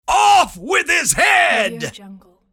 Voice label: male voice off with his head